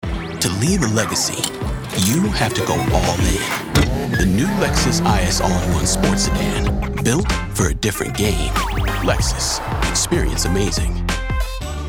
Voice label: Commercial